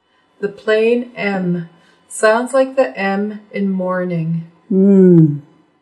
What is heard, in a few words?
plain m